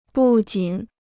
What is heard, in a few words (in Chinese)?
"不仅", Chinese